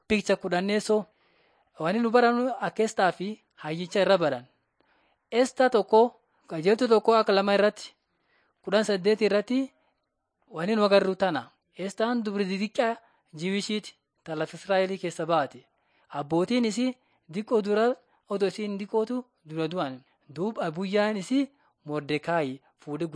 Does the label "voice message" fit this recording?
No